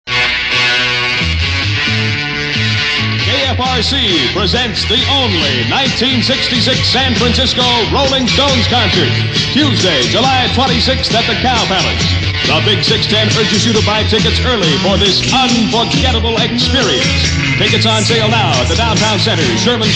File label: concert promo; Cow Palace; Psychedelic Promos Radio Spots